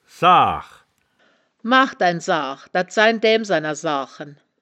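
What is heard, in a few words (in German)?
"saach", wittlicher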